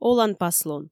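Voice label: "оланпаслун", Komi, female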